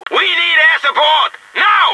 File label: air support, taunt; phx new taunts pack; hunters pack